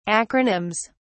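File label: "acronyms", British English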